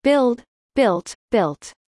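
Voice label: "build built built", English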